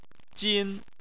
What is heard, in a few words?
"jin1", Chinese; Chinese Surnames